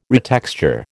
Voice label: "retexture", American